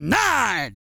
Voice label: COUNT, Vocal Planet, R&B & Dance, COUNTING; ILIO